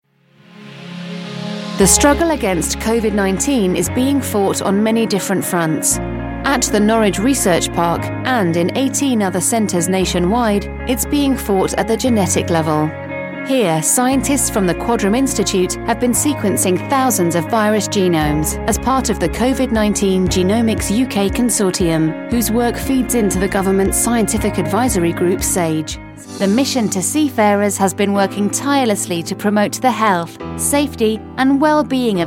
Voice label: narration